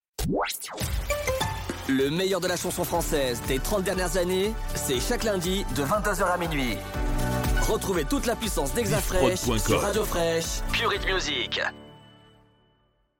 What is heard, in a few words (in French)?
Radio Promo Chanson FR; HexaFresh